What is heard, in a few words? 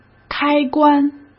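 "开关", Mandarin Chinese; chinese flashcards; hsk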